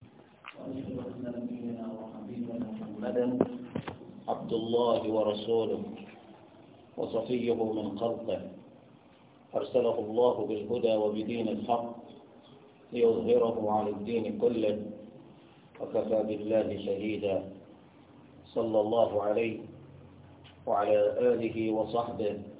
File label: Khutbah Salatul Istisqah (Prayer for Rain) (Yoruba); Ogbomosho; Khutbah Eid